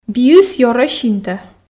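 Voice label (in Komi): биыс ёрӧ синтӧ, Komi, female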